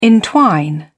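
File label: "entwine", English, British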